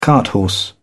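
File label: "carthorse", English, British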